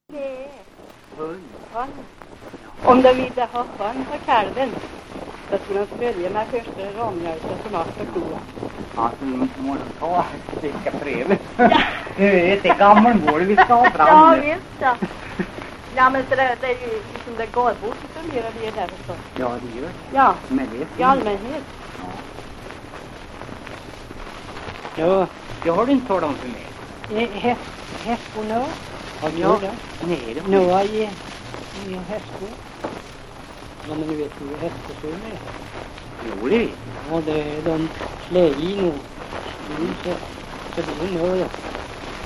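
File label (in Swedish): Hede Härjedalen, svenska sagor dialekter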